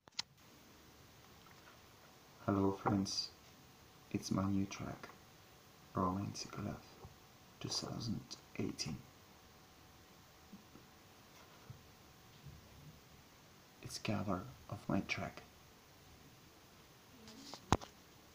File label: Voice Presentation